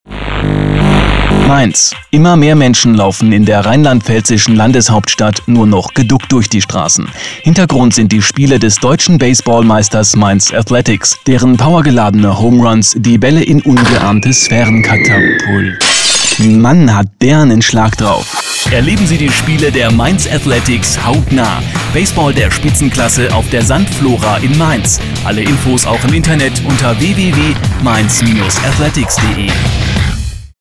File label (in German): spot; mainz athletics